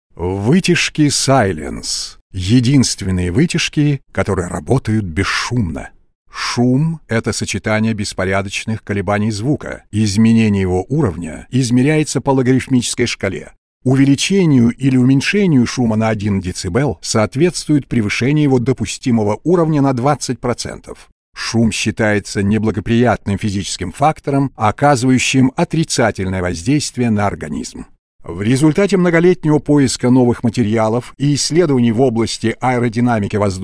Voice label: Russian Voice Over Animated campaign

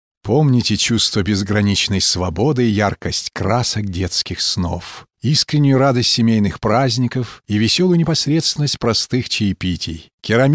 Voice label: Russian Voice Over warm calm

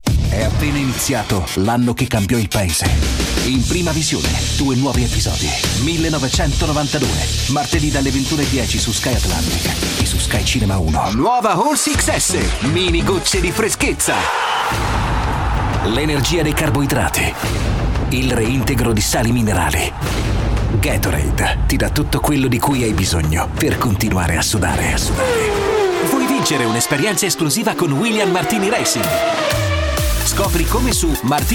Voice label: short trademark ads

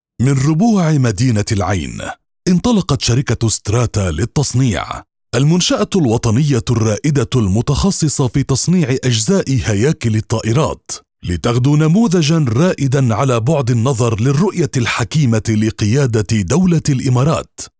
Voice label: Arabic voice over video promotion

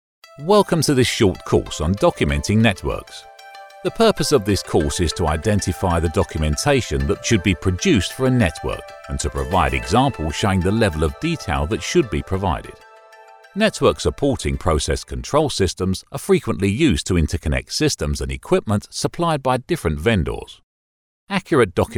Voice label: British Video Explainer

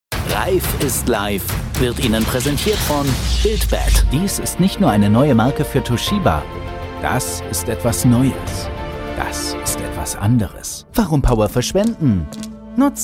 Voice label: German Voice Over sample

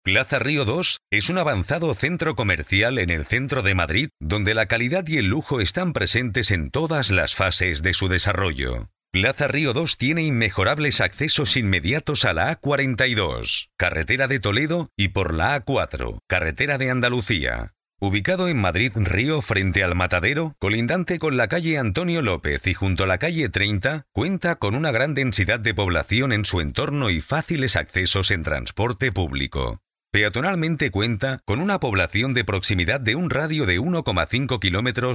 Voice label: Spanish Voice Over E Learning

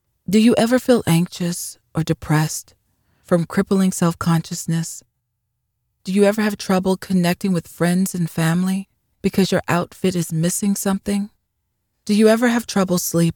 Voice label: English Voice Over

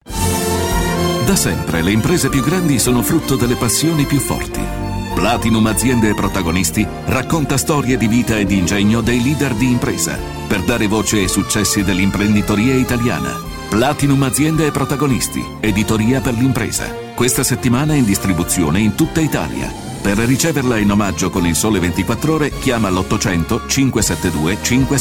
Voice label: corporate promotional voiceover

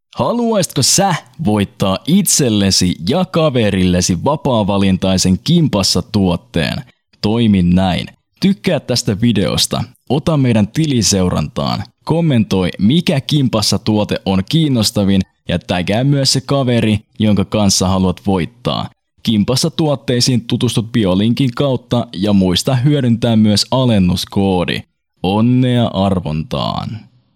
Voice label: Finnish Voice Over

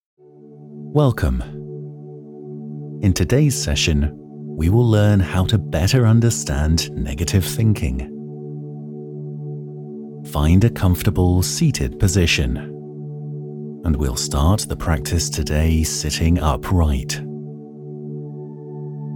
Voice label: English Voice over calm

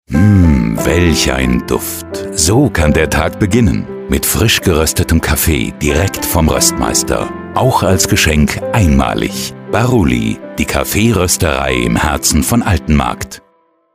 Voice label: advertisement

